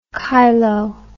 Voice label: "chylo", English